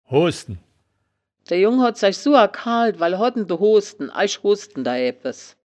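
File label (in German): "hoosden", wittlicher woerterbuch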